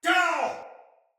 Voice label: Attack Soldier Male; Sinecure Soldier Male A